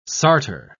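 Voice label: "sartor", British English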